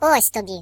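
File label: Fire punch, British English; Default uk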